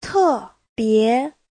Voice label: "te4bie2", Chinese